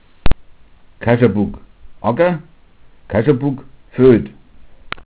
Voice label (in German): "kascha", dialekt